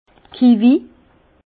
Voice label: "kivis", Bas Rhin; kiwi; vin

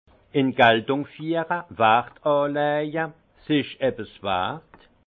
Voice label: "galtung fiere", alsace; vin; mettre en valeur

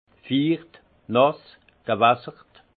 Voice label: "ficht", alsace; vin; mouillé

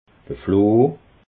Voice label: "floh", alsace

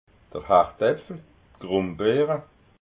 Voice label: "ardapfel", alsace

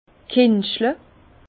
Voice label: "kentschle", Bas Rhin; vin

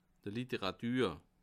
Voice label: "d' Literàtür", Bas Rhin; la litterature

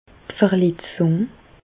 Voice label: "verletzung", Bas Rhin; medecine; blessure

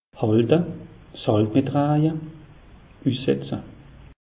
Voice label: "halte", alsace; meteo

